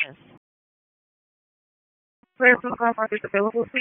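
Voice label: EMS DISP, radio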